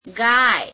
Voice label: gujarati cow

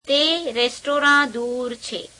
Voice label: gujarati, that restaurant is far

